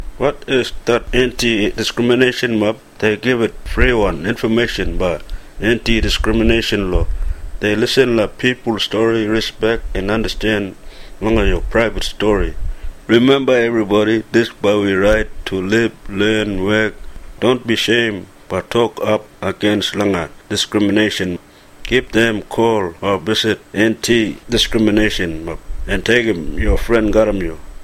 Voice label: kriol; talking posters